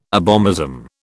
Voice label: "abomasum", British

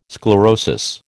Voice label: "sclerosis", American

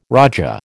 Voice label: "raja", American

